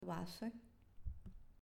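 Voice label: "Vasos", Spanish, female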